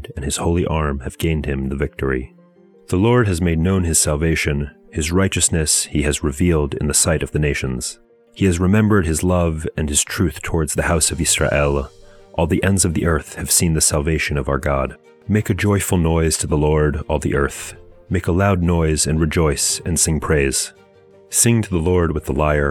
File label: poetry and prayer psalm english